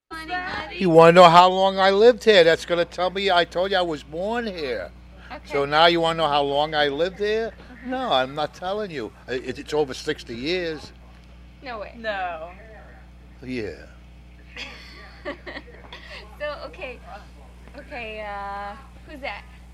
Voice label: Brooklyn, New York, US Northern East Coast Dialects; WM